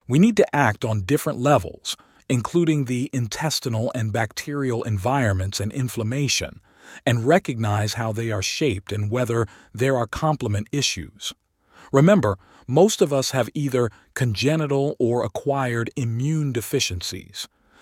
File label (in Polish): prezentacja medyczna